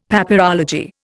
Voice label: "Papyrology", Indian; Dictionary